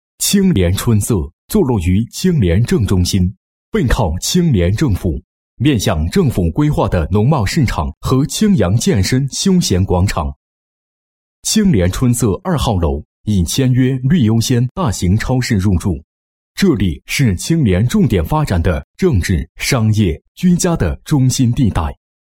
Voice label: 男 房地产广告; 青莲春色房产宣传

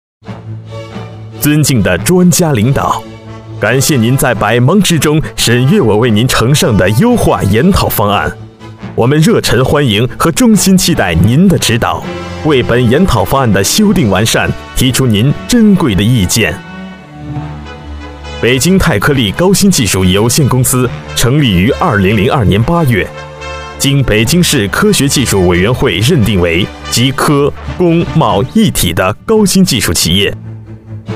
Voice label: 男 专题, 国语男声配音 浑厚大气; 北京泰科立

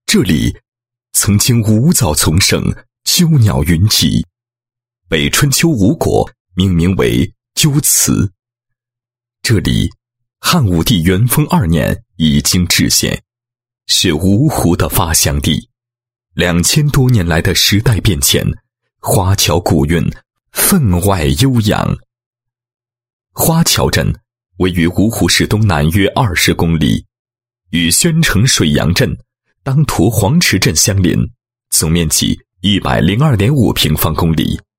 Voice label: 男 旅游 旅游宣传片解说词; 花桥

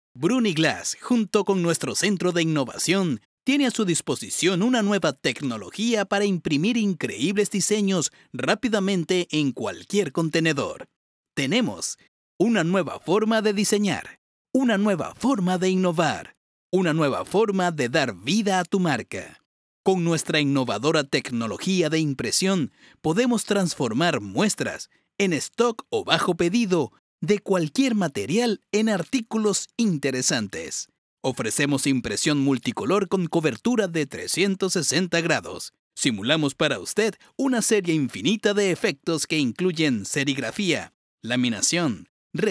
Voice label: 西班牙语男 叙述干音

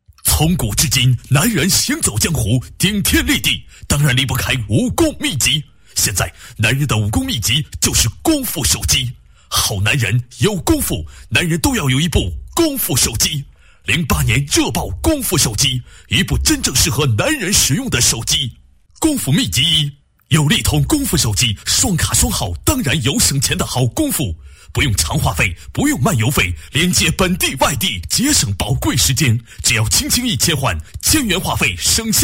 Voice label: 男40 直销广告, 国语男声配音

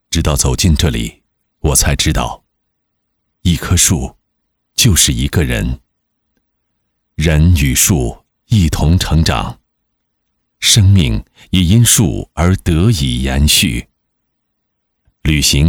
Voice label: 旁白配音; 直到走进这里 我才知道

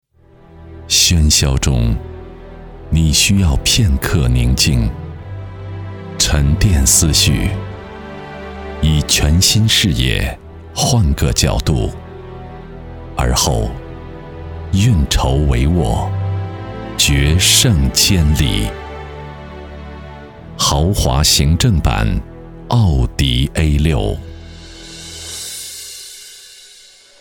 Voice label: 男 汽车广告 低沉磁性; 奥迪6

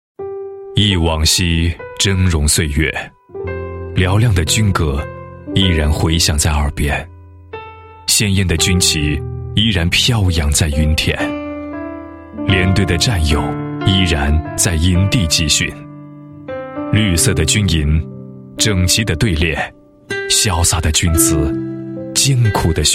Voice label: 男 广告; 致豪腕表

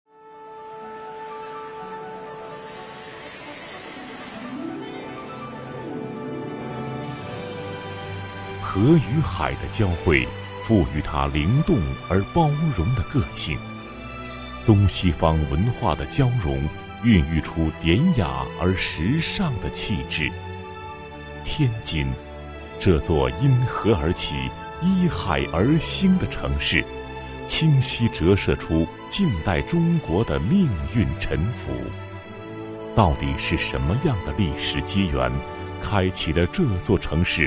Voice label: 男 专题 记录片风格, 国语男声配音; 天津 潮涌; 磁性

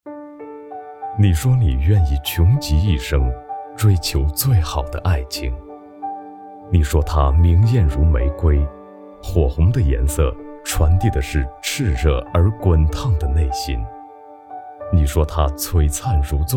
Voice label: 男 独白; 玫瑰心语

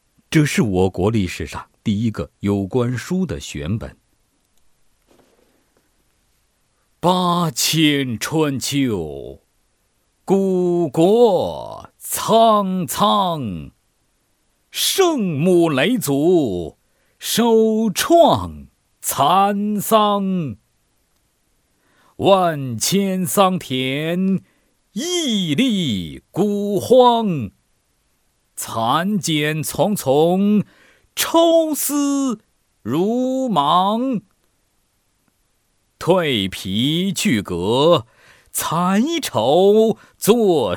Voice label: 男 祭文 叙述加朗诵风格, 浑厚低沉; 嫘祖祭文

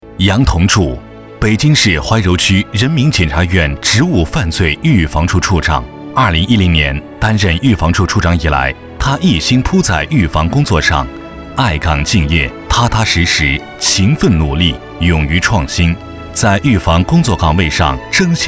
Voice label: 男 人物样音, 国语男声配音, 浑厚; 浑厚专题

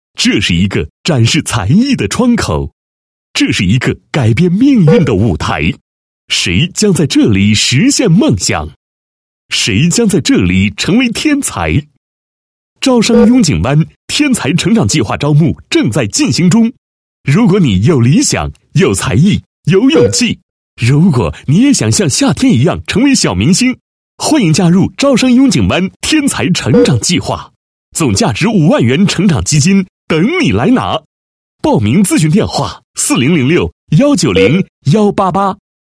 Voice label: 男-房地产广告-活动宣传, 配音案例; 招商雍景湾活动宣传

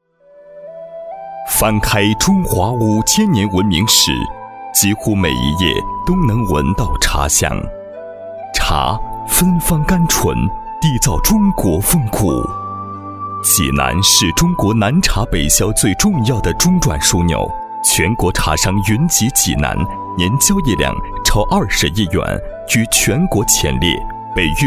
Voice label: 男 茶城文案, 国语男声配音; 齐鲁茶城文案

